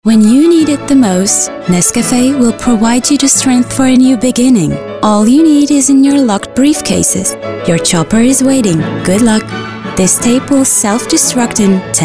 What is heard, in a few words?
ENG; English